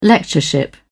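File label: "lectureship", British English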